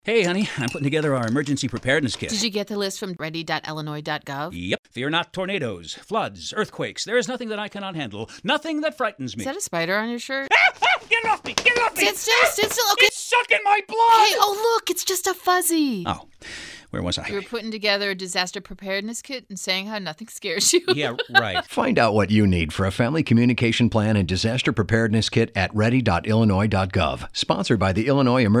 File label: radio campaign